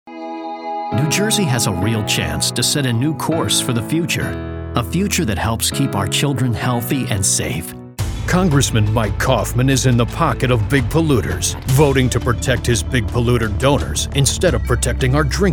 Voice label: men political